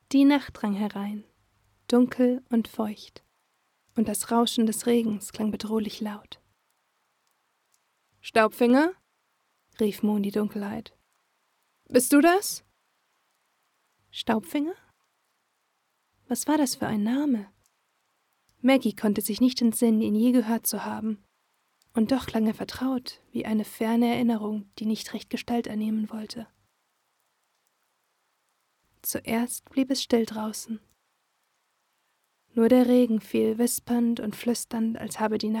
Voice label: female German narration; Devine Voices

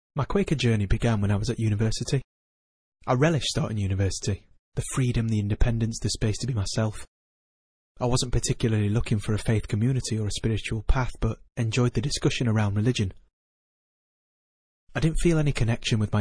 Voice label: male english northern accent online promo; quaker

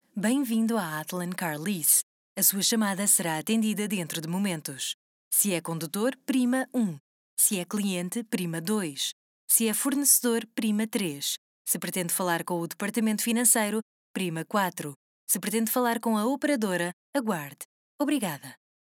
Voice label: female Portuguese IVR; athlon

